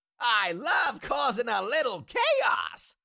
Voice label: Cartoon little monster voice i love causing a little chaos